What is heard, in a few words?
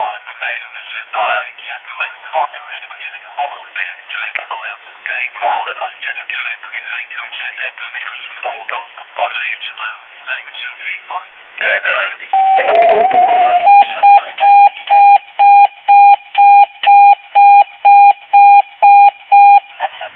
eas alarm saying asteroid